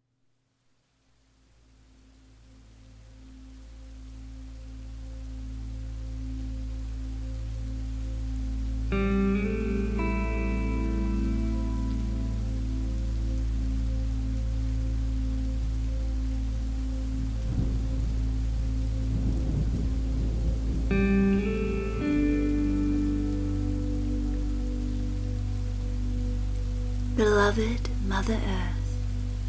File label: Guided Meditation Rainstorm